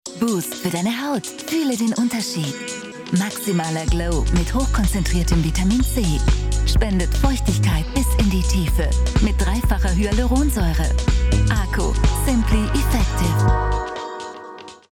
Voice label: Werbung TV; ACO Booster

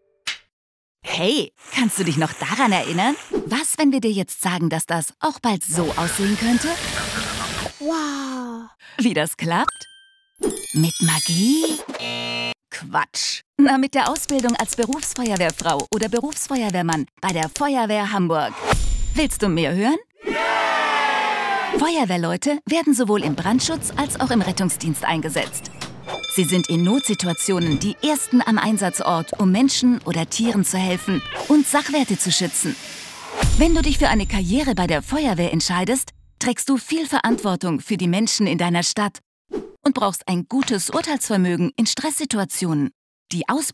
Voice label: Erklaerfilm jugendlich frisch; Mein 1. Tag

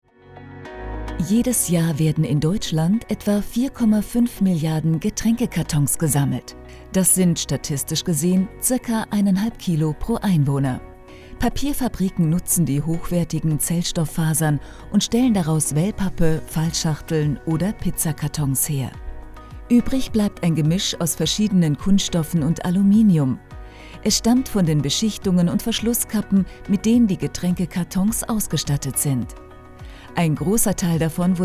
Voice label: Imagefilm sachlich tiefer; Palurec

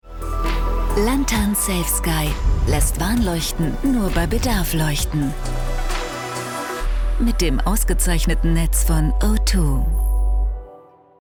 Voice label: Werbung TV; O2 Lanthan

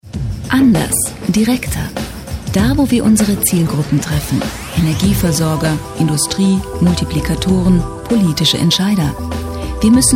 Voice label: Imagefilm; Siemens PowerCube

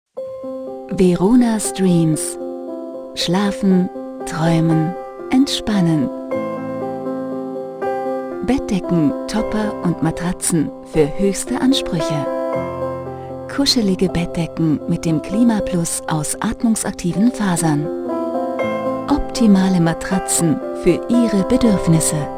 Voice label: Produktfilm; Veronas Dreams

